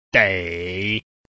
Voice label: "dei3", Chinese

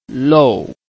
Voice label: "lou4", Chinese

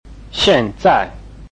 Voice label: "xian4zai4", Chinese

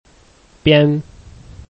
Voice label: "bian1", Chinese

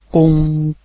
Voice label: "gong1", Chinese

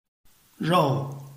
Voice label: "rou4", Chinese

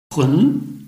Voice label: "hun2", Chinese

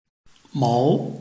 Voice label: "mou2", Chinese

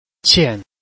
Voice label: "qian4", Chinese

